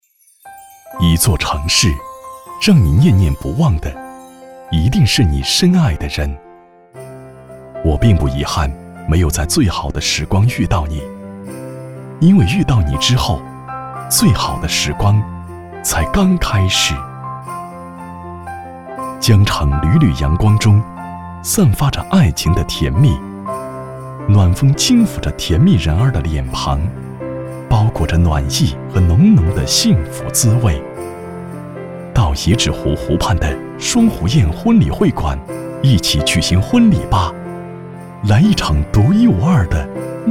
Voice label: 微电影 婚礼温馨浪漫, 男国; 双湖宴

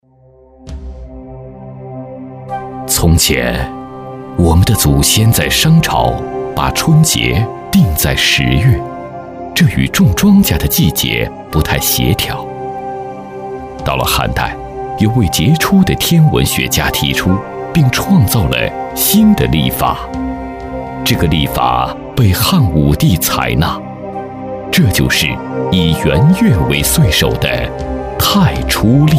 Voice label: 纪录片 自然科教, 男; 春节老人

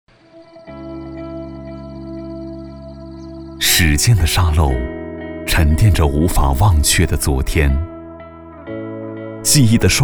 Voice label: 男国 微电影; 在苏州遇见最美的你

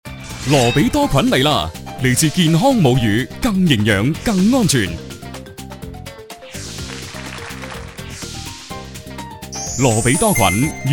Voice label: 男粤 广告 品牌形象 活力; 粤语; 统一罗比多

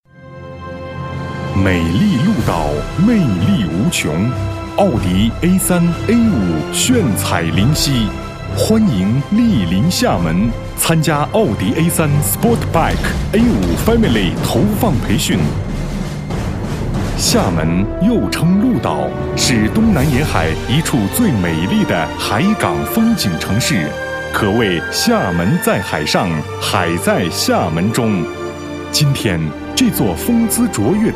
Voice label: 广告 汽车 沉稳, 男国; 奥迪

